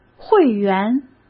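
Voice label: "会员", Mandarin Chinese; chinese-flashcards; hsk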